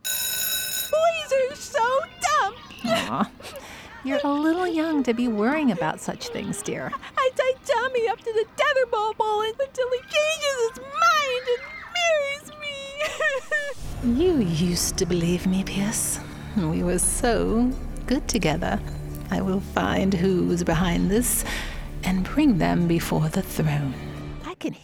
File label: Voiceover Animation